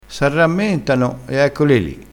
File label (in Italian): "arrammentassi", crespinese